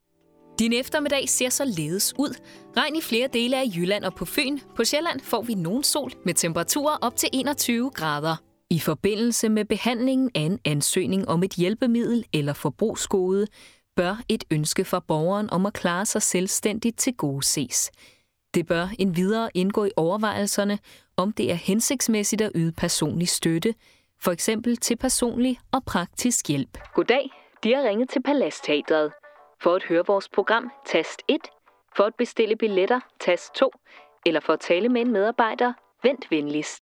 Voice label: female danish corporate compilation; scandinavian